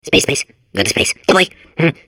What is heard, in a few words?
babble; Portal 2